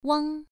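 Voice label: "wang" Mandarin Chinese, first tone, pronunciation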